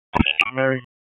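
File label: Dispatch, p25; WSO DISP 1; wake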